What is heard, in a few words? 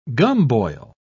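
"gumboil", British English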